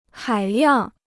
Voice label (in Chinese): "海量", Chinese